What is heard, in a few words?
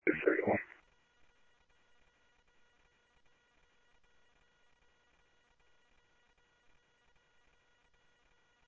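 Sheriff West County, scanner audio; ThompsonPeakScot; MCSO - WEST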